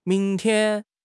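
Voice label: "ming2 tian1", Mandarin Chinese; HSK1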